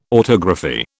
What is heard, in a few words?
"autography", British